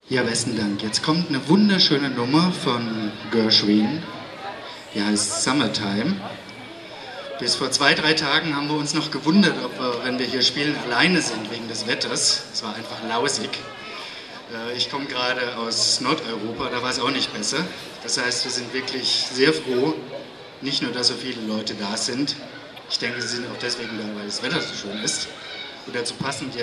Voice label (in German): Ansage; Konzert Summer In The City (Place D’Armes, LU-Zentrum)